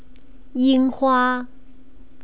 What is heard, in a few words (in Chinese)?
"yinghua", Chinese pronunciation